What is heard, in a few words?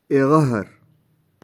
"Eghahar", Touareg; Oued